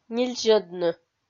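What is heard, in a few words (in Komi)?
"нильзьӧдны", Komi, female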